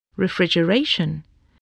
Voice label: "refrigeration", spelling voice